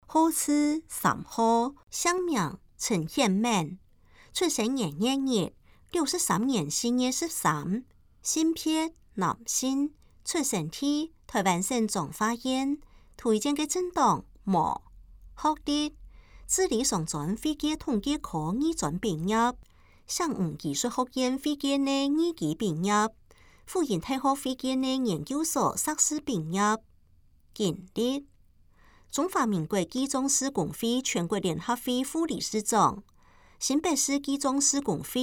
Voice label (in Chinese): 客語; 新北市; 有聲公報; 市議員; 第3選區